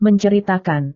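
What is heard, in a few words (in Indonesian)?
"menceritakan", Indonesian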